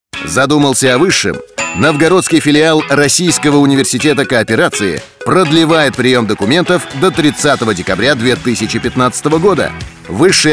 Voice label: promo russian

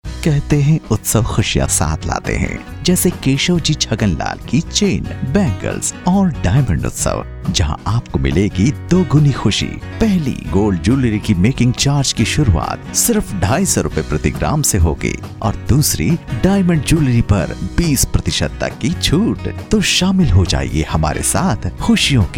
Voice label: Jewellers shop promo

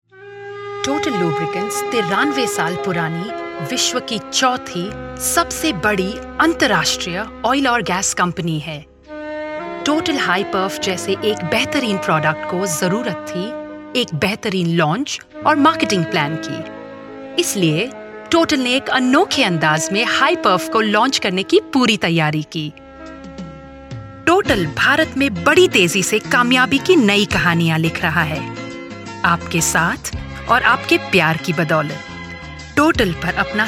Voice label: Corporate Film Hindi

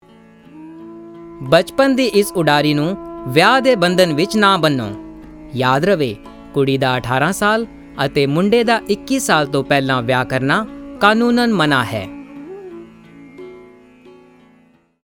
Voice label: social spot Punjabi

